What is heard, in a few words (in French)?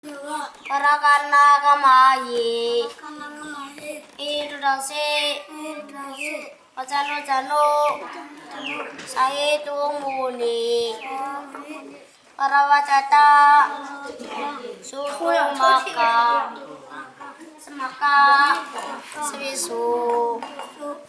priere jeune moine bouddhiste